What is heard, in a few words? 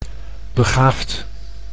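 "begaafd", Dutch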